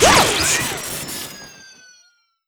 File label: girl charge; HeavenStudio; trick Class